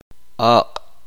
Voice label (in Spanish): uspanteko lengua